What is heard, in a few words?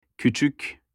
small in turkish